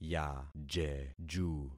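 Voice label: "yajeju", concatenated syllables; Affixing experiment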